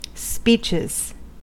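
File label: "speeches", US English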